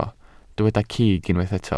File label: "cig", welsh